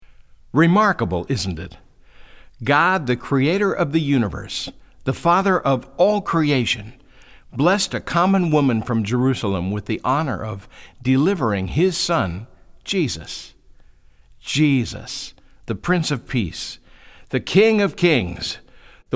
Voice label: narration